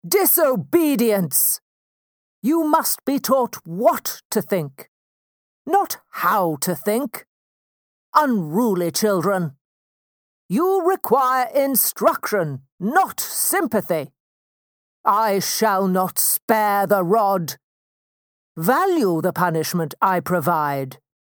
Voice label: English British video games

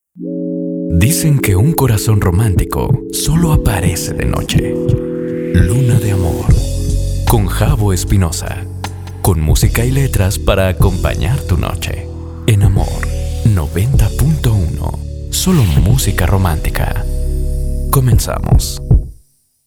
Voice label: spanish mexican promos

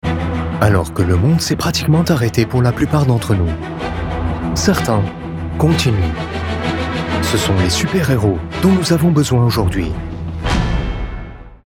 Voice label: French movie trailers

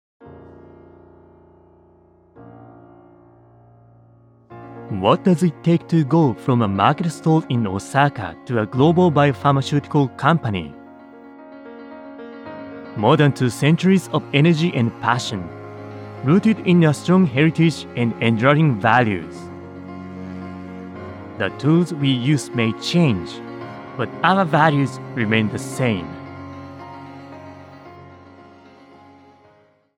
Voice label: english corporate videos

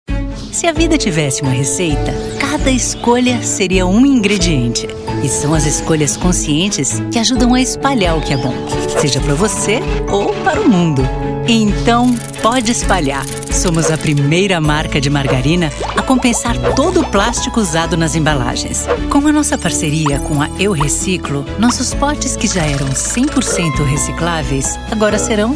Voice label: Portuguese Brazilian commercial, empowering

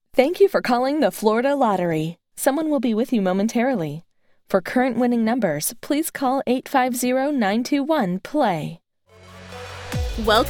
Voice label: english american ivr

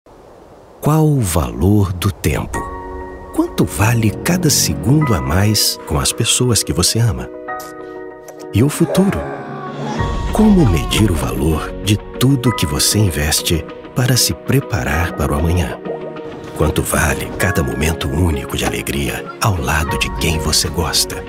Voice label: portuguese brazilian corporate videos

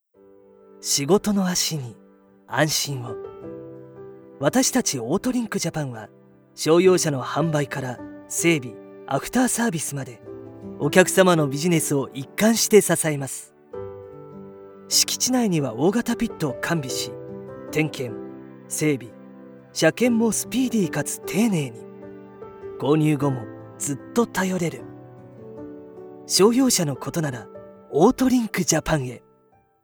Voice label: japanese automotive; japanese voice actors

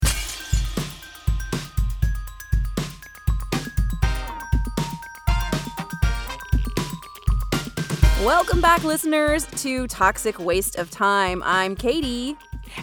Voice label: english american podcasts

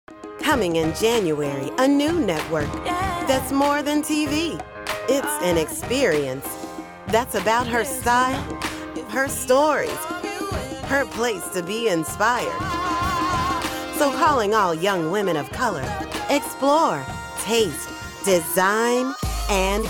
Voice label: English American training e-learning

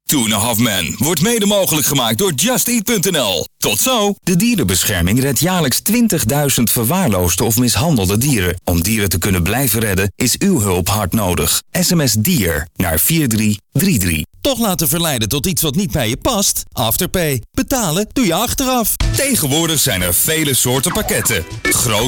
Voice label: dutch commercial; brave

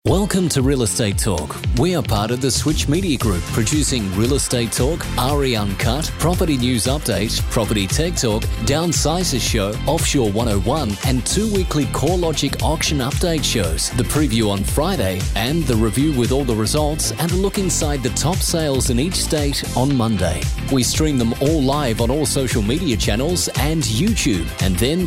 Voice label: english australian podcasts; australian voice actors

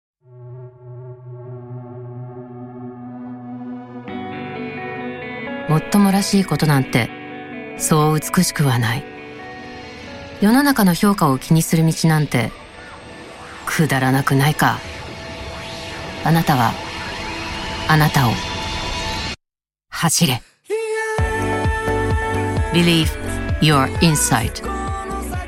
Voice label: japanese automotive; Japanese voice actor

